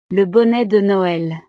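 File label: "le bonnet de noel", French; navidad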